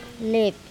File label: "leip", andamanese; smoke